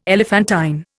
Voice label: "elephantine", Indian